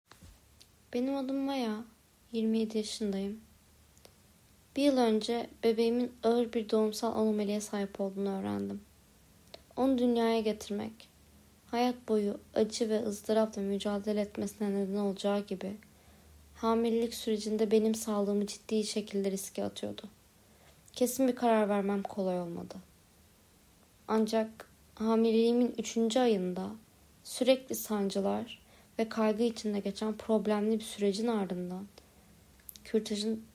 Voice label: Poland Turkish, Kişisel Deneyim